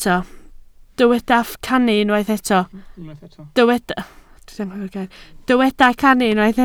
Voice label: "cannu", Welsh